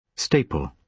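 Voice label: "staple" noun, British English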